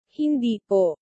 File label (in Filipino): "hindi po", filipino language